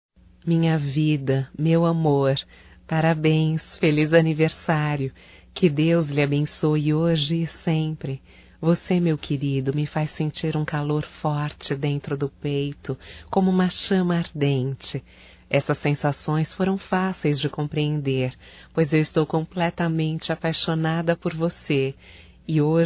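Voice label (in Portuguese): romântico female, Telemensagens Aniversarios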